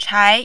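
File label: "chai" tone 2, Mandarin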